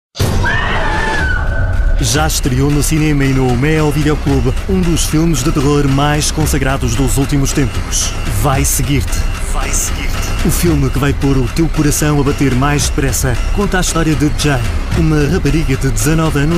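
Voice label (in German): Portuguese, Werbung Kraftvoll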